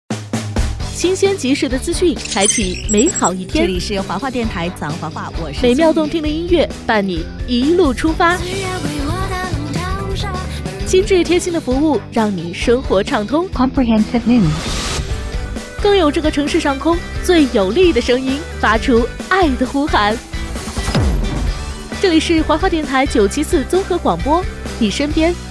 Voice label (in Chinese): 宣传, 女, 童声（3-12）, 普通话; 频宣你身边的广播